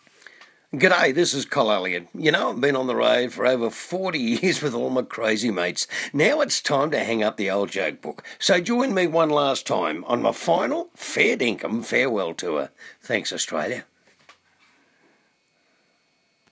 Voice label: radio advertisement